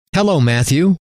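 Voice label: hello, male; trainer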